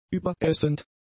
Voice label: "überessend", German; adjectives